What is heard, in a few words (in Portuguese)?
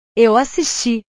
"eu assisti", portugues